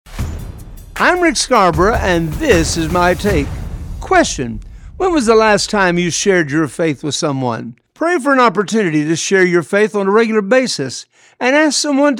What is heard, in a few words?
radio spot; Sharing Your Faith